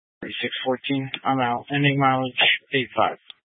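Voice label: Police Dispatch, Scanner audio; Police 1 Dispatch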